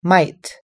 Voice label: "might", us-english

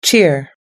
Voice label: "cheer", us-english

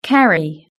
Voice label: "carry", British English

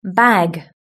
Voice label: "bag", British English

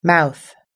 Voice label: "mouth", us english

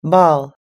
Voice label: "ball", us english

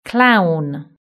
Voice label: "clown", British English

